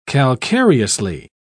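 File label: "calcareously", English, British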